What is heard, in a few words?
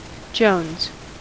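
"jones", American English; pronunciations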